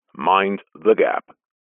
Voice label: train underground london mind the gap male english accent pa recorded